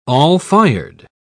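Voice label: "all-fired", English, British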